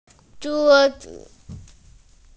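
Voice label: "zhuo1zi5", Mandarin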